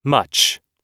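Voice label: "much", English; oxford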